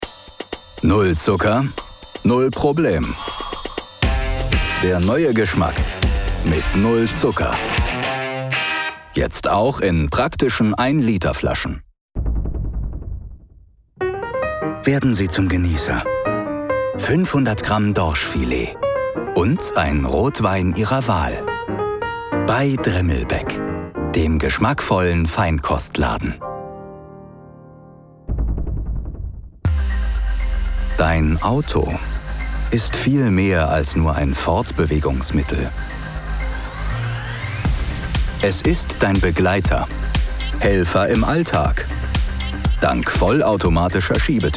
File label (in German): Werbung